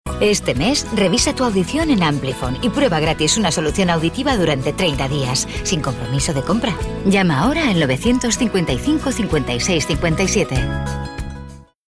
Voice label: female spanish medical comercial; ideasonora